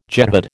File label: "jehad", American